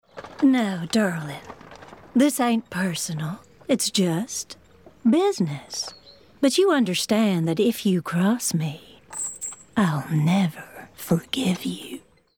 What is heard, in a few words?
Character Outlaw